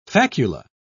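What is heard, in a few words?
"facula", British English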